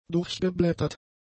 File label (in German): "durchgeblättert", German; adjectives